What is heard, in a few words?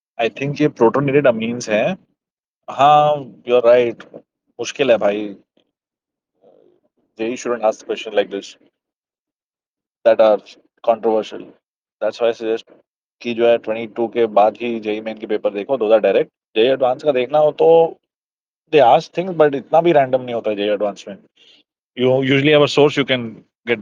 voice message; Ionic Mobility